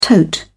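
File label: "tote", English, British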